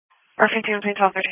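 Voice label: Police Patrol (Dispatch)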